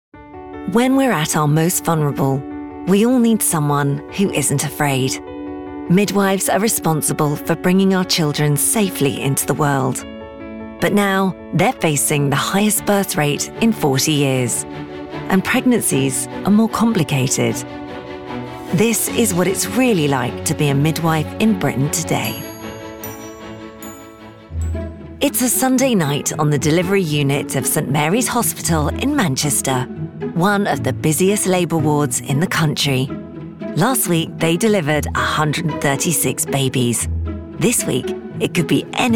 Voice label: Documentary